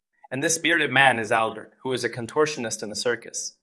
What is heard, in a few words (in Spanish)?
Voice Acting es M